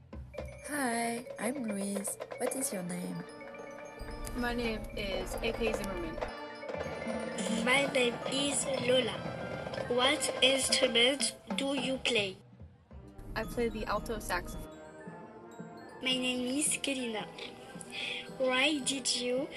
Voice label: Interview with music